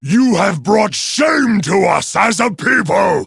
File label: jeers; garrosh